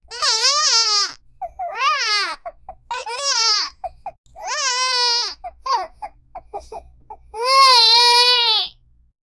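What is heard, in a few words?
child creature angry voice; characters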